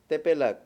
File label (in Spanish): "jurar", Mayo